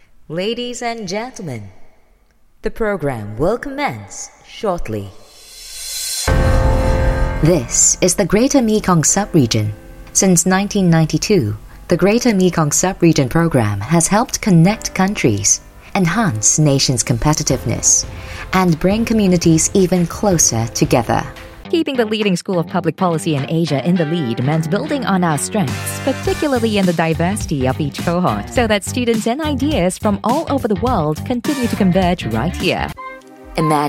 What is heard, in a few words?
Asian English Voice Artist